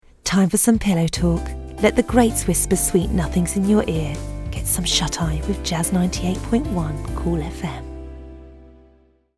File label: radio ident